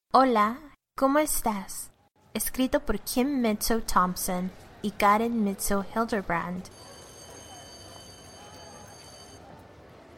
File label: Spanish Narration